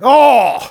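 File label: negative vocalization, German; Argh...; Spy Sprachkommandos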